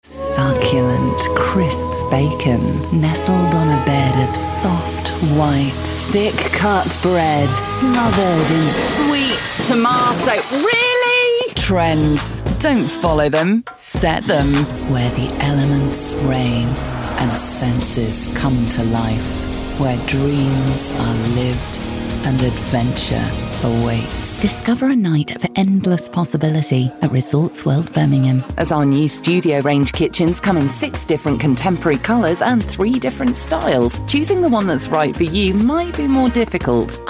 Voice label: commercials